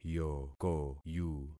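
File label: "yokoyu", concatenated syllables; Affixing experiment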